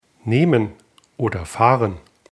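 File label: "fahren", German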